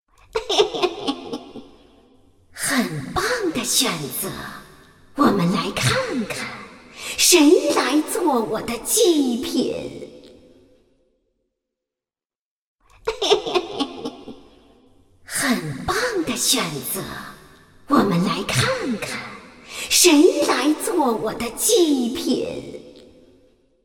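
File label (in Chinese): 国语 女声 巫婆鬼类-阴森旁白; 游戏；巫婆阴森嘲讽 素人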